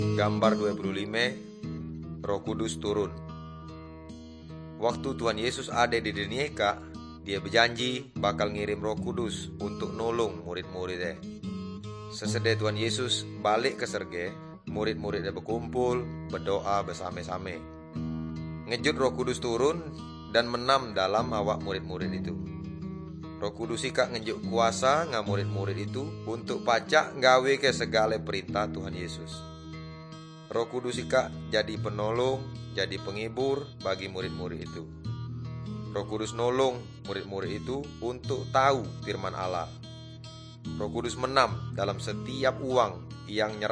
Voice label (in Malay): good news melayu tanjung raja